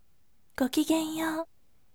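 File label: voice, gentle; gokigenyou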